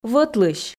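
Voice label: "вӧтлысь", Komi, female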